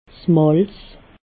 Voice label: "malz", alsace

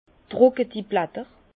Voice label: "drucketi blatter", Bas Rhin; vin; feuilles sèches

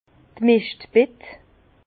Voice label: "meschtbett", Bas Rhin; hotte à fumier; vin

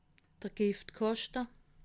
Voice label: "giftkaschte", alsace

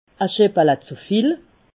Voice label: "scheppele zuviel", alsace

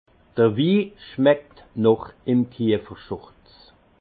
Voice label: "kiefer schurz", alsace; vin; ce vin sent le tablier du tonnelier le vin vient dêtre soufré

